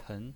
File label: "pen2", pinyin